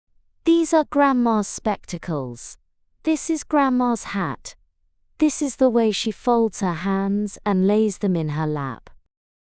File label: fem brit; Grandmas Spectacles